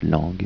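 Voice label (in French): "langue", French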